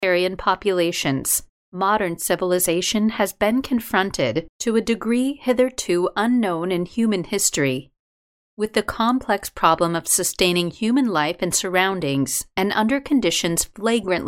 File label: Audio Book Sample